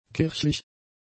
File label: "kirchlich", German; adjectives